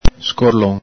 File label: "scorlon", Veneto